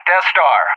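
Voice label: "Deathstar", English; JTAC; Callsign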